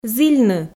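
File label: "зільны", Komi, female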